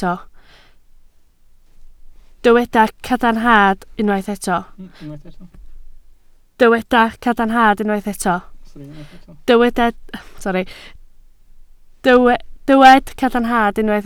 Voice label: "cadarnhad", Welsh

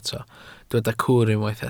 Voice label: "cwr", Welsh

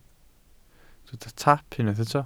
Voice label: "taap", Welsh

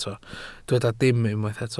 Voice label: "dim", Welsh

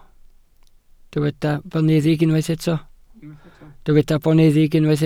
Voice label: "boneddig", welsh

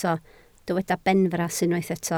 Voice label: "benfras", Welsh

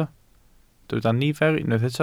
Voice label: "nifer", Welsh

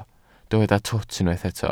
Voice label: "twt", Welsh

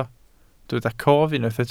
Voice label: "cof", Welsh

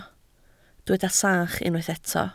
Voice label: "sach", Welsh

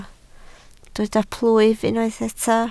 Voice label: "plwyf", Welsh

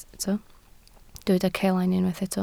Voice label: "celain", Welsh

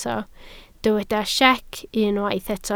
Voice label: "siec", Welsh

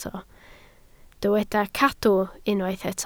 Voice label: "cadw", Welsh

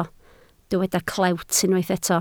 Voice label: "clewt", Welsh

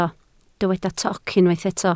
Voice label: "toc", Welsh

